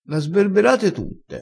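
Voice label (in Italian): "sberbera", dialettale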